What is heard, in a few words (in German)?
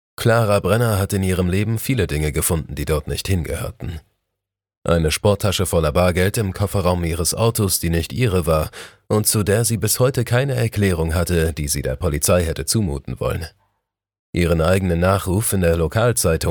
Hoerprobe, sprecher